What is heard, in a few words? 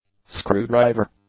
"screwdriver", English; nouns